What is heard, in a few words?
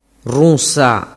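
"runsa", Sicilian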